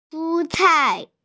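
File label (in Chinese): "shu1cai4", Mandarin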